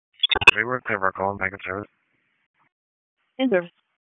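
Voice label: EMS DISP, radio; wake; p25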